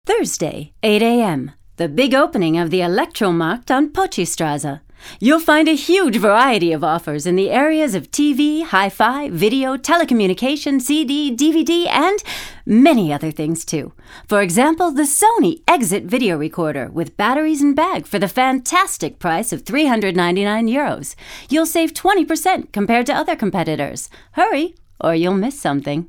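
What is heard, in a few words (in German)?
American English Werbung Freundlich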